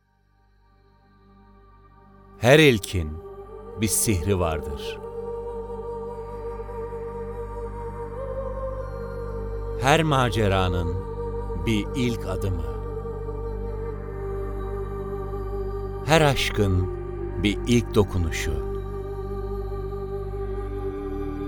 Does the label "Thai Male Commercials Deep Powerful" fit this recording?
No